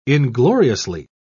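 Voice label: "ingloriously", British English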